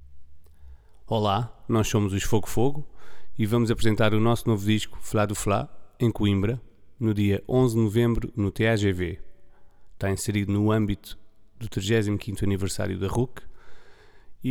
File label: spot musica